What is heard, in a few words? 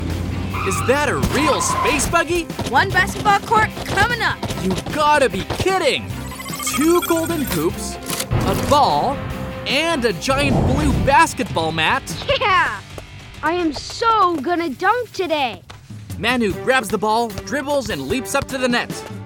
Animation US; voix-off; Super Wings